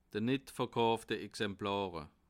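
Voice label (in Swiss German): "nitt", Bas Rhin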